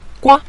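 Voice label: "gua", Mandarin, neutral tone; 老鸹; lǎo gua; (dialect) crow; raven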